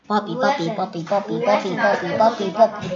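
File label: "puppy", spoken word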